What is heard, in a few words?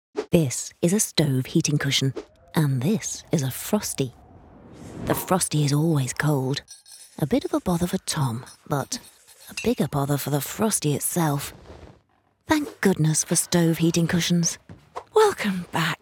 TVC; Stoov